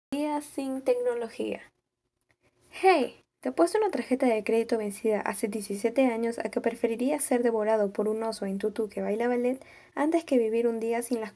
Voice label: Spanish (LA), Female